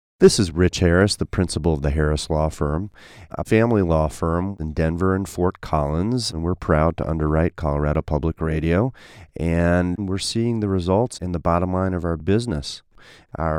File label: Radio; Colorado Public Radio